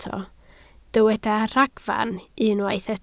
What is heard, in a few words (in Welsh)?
"ragfarn", Welsh